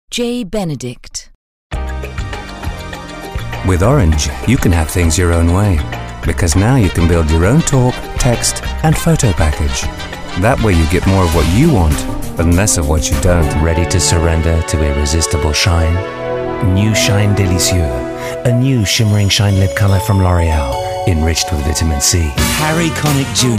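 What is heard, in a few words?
uk commercials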